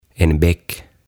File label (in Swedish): "En Bäck" "Puro"